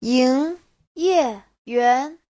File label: "ying2ye4yuan2", Chinese; Giáo trình Hán ngữ